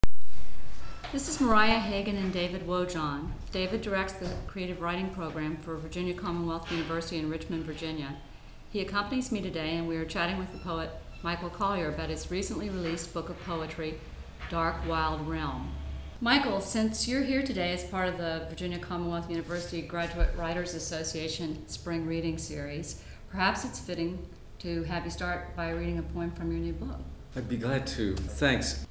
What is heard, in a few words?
interview